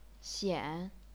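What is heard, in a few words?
"xian", chinese pinyin, third tone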